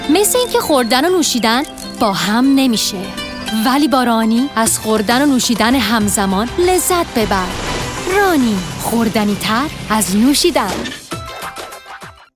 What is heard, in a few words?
Farsi